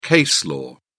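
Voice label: "case law", British English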